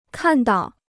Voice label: "看到", Chinese

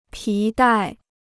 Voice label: "皮带", Chinese

